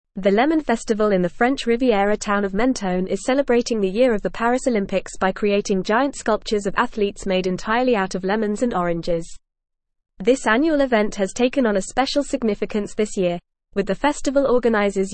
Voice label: English Advanced FAST Reading; Lemon Festival in Menton Celebrates Paris Olympics; The Lemon Festival in the French Riviera town of Menton is celebrating the year of the Par

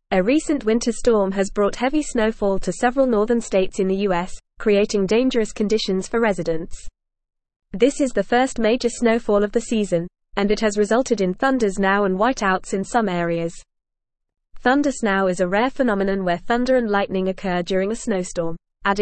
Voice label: English Advanced FAST Reading; English Newsroom; Winter Storm Causes Chaos and Dangerous Travel Conditions

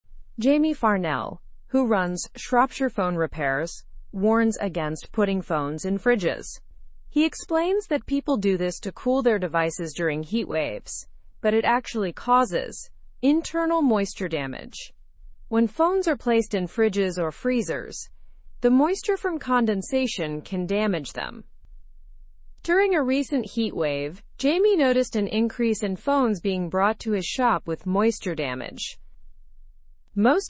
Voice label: learn english through news upper intermediate; why you should never cool phones in fridge

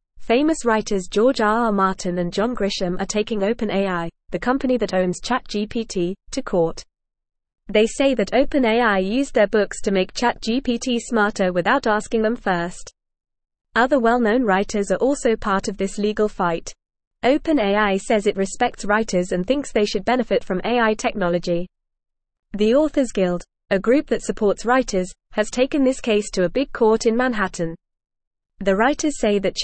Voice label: Lower Intermediate FAST Reading, English; English Newsroom

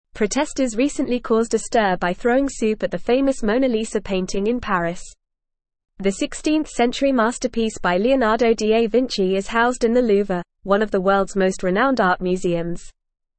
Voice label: English Newsroom Advanced FAST Reading; Protesters throw soup at glass protected Mona Lisa painting

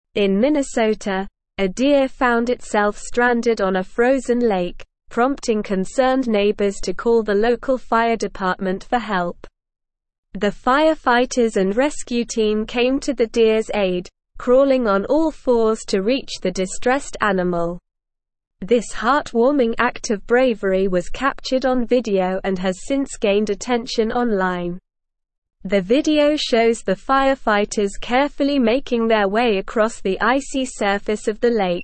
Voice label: English Advanced SLOW Reading; English Newsroom; Firefighters Rescue Stranded Deer on Frozen Lake

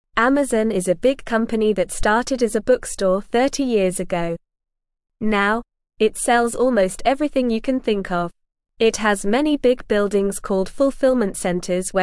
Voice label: English Beginner NORMAL Reading; Amazon From Bookstore to Everything Store

